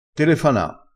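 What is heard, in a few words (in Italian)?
"telefana", vocabolario dialettale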